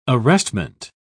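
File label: "arrestment", British English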